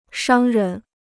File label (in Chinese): "商人", Chinese